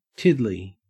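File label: "tiddly", Australian English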